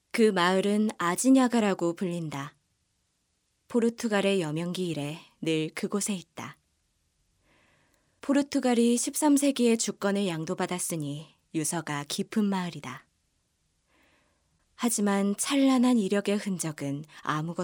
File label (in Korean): 다큐멘터리 차분한